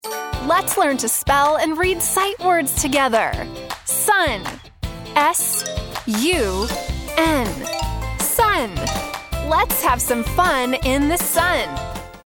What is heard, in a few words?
Engaging Fun Lively Pre School Kids Learn To Read